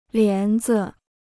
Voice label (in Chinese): "帘子", Chinese